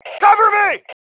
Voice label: COVER ME, MALE